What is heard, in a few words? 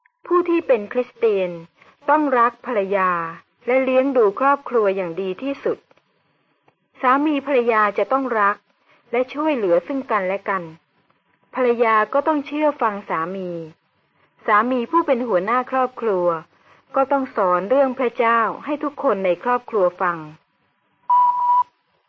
good news short thai central